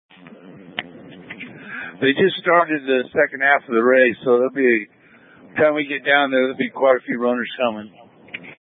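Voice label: Incident Tactical, scanner audio recording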